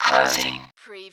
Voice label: Robot voice - closing; lynne publishing

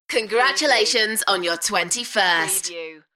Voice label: UK female; Congratulations on your 21st; beanstalk audio

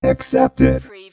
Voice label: Robot voice - accepted; lynne publishing

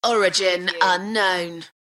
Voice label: UK female Origin unknown; beanstalk audio

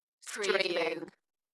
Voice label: UK female Streaming Dalekness; beanstalk audio

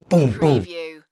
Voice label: Male Boom Boom

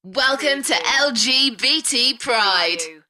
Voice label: UK female; beanstalk audio

